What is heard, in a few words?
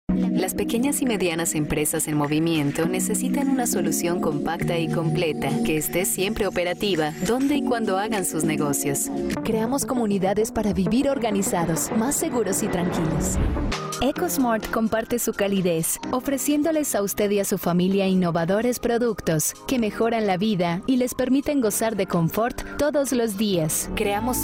Narration